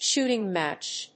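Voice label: "shooting match", English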